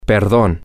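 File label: pardon me, Spanish, male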